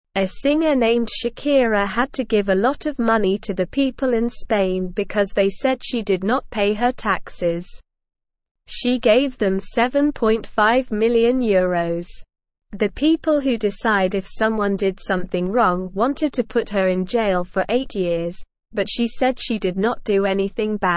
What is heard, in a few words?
English Beginner SLOW Reading